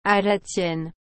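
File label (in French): "À la tienne", French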